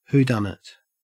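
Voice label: "whodunit" English, Australian; pronunciations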